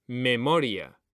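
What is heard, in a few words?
"memoria", Spanish; mekanta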